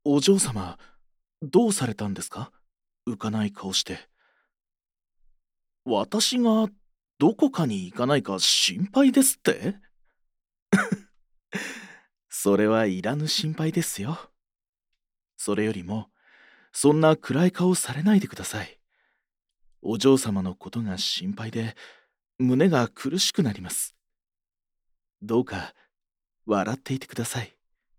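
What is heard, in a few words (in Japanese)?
male, 声優, 甘い、イケメン執事; narrator men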